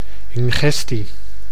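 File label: "ingestie", Dutch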